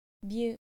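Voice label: "byu", Japanese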